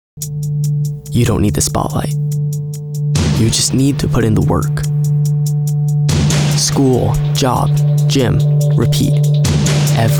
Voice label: Commercial